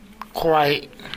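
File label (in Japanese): "kowae", 南山形; 疲れる。体調不良。疲れて苦しい。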